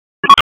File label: Police North District Tac 1, p25; Raleigh; wake